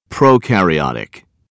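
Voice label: "prokaryotic", English word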